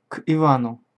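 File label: "к Ивану", Russian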